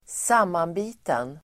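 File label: "sammanbiten", Swedish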